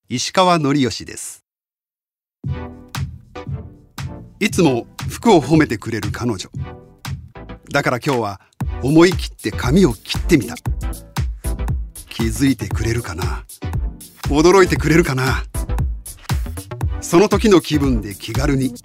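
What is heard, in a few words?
commercial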